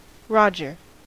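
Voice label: "roger", US English; pronunciations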